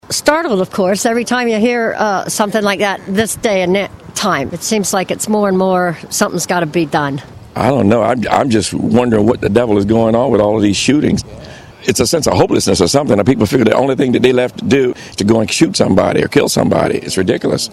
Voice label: vox pop; shooting